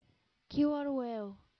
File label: "killer whale", English